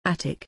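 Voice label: "attic", English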